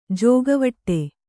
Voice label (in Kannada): "ಜೋಕೆವಿಡಿ", kannada